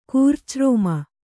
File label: "ಕೂರ್ಚರೋಮ", Kannada